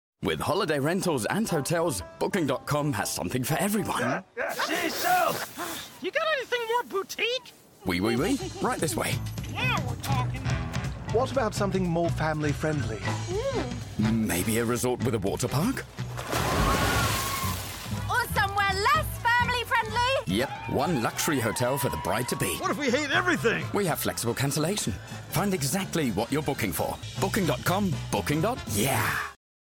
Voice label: WERBUNG EN, NATIVE UK - modern, auf Augenhöhe, kumpelhaft